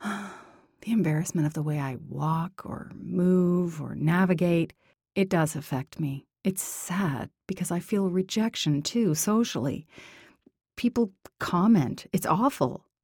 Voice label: Authentic Sad Uncomfortable